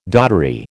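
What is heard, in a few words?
"doddery", American English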